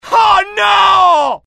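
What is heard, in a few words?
fear grenade, IT; mohaa; dialogue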